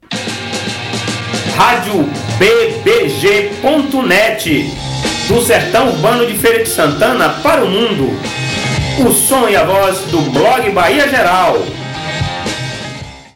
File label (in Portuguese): VINHETA SLOGAN RADIO